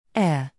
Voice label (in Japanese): イギリス英語 air